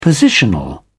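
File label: "positional", British English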